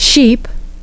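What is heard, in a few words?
"sheep", English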